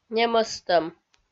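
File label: "немӧстӧм", Komi, female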